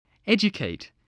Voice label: "educate", English

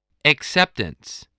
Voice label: "acceptance", English voice

